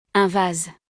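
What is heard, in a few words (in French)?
"un vase", French; vocabulaire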